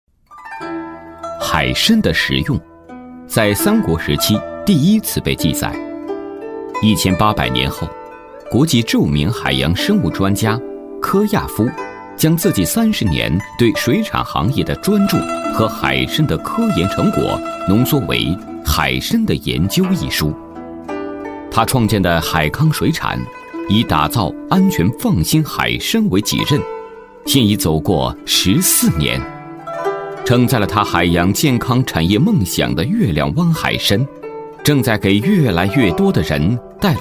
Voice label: 男 专题, 国语男声配音; 青岛海康

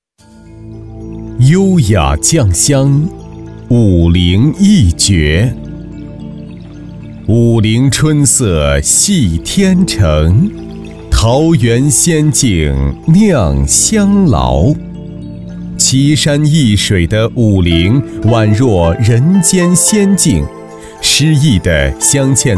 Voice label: 男 专题, 国语男声配音; 优雅武陵酒

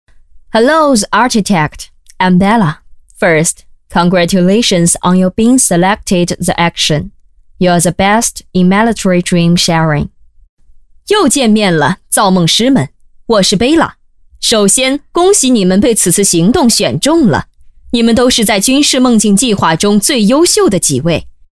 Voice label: 英语 角色样音, 女声, 年轻温柔; 国语女声配音

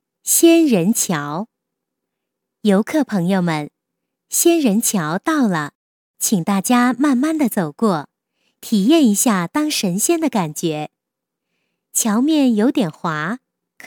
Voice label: 女 导游词 山东导游, 国语女声配音; 省台女配

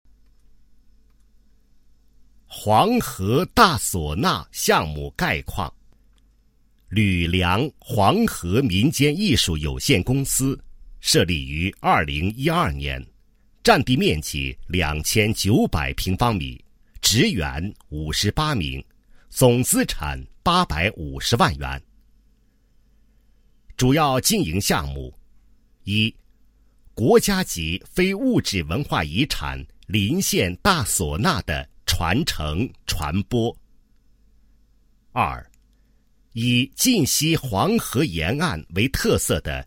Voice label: 男 项目介绍; 黄河大唢呐 项目概况

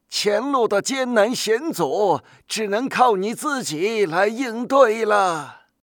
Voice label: 男 游戏角色配音

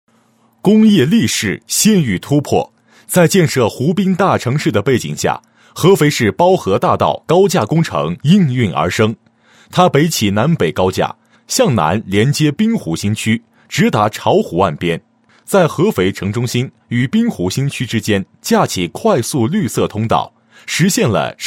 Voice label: 男 工程介绍 高架工程; 市包河大道高架工程

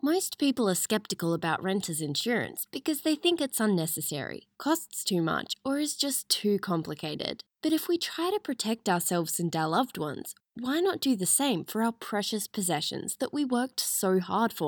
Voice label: 外籍英女 租房保险讲解

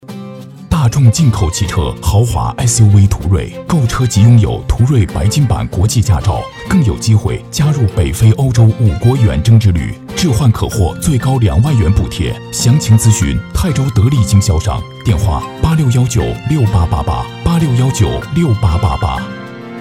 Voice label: 广告配音; 大众进口途锐

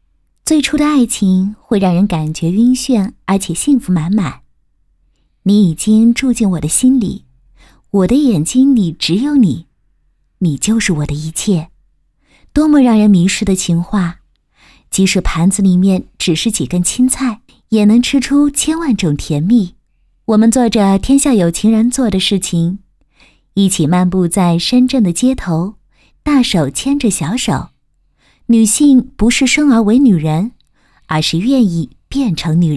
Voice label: 女 旁白 爱情DJ

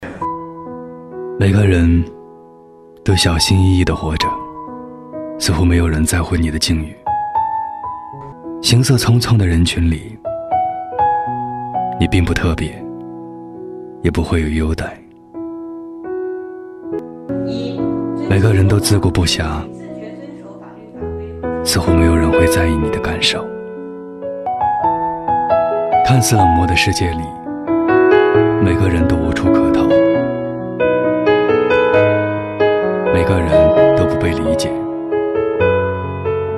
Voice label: 男, 温暖独白

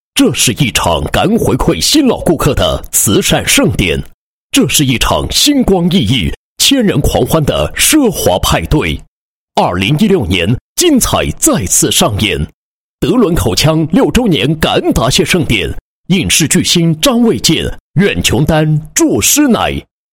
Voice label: 男 医院宣传, 口腔 周年感恩回馈; 德伦口腔6周年

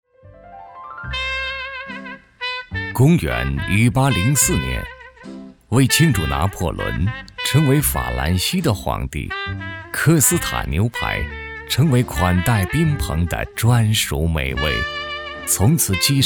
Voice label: 男 牛排广告（磁性 优雅）, 国语男声配音

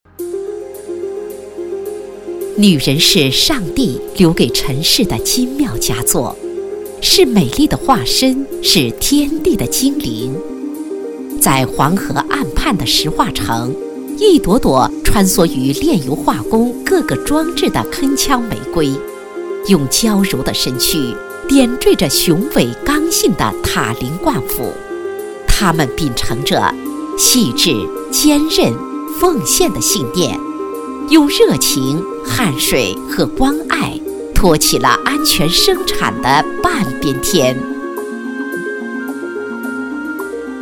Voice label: 女 专题 企业专题片; 兰州石化铿锵玫瑰

